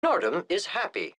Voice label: voiceover; planescape torment

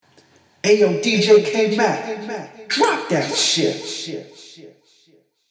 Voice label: dj drop, drop that shit

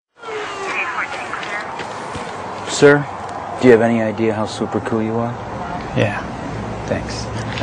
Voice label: adopt a pet commercial super cool guy

